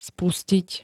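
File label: "spustiť", Slovak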